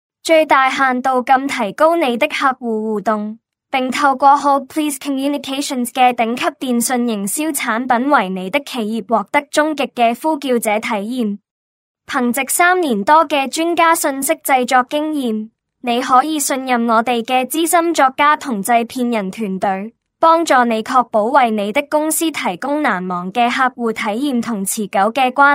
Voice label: Cantonese Female ai; XiaoMin